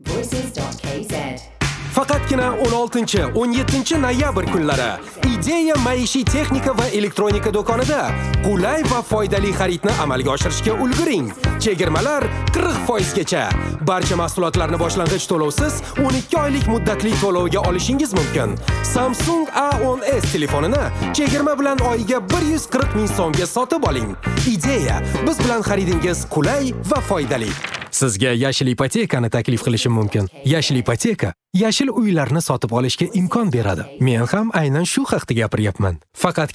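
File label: Dictor Uzbek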